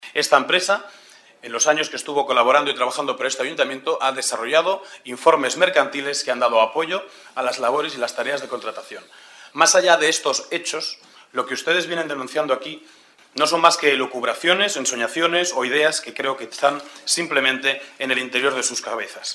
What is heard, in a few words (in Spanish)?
noticias